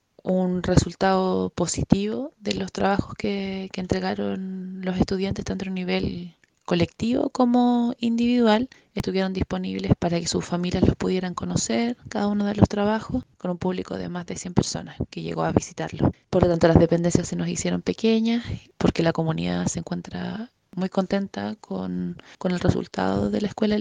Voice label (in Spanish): CUÑA; LINGUE